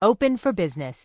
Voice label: Human Female Standard NPC Vendor